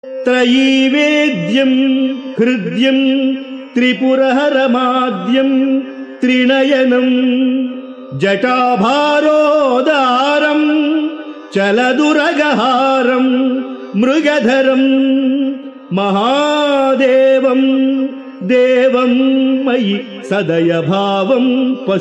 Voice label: Sanskrit; Sri Adi Sankaracharyas Sivananda Lahari; Adi Shankaracharya; श्रीशिवानन्दलहरी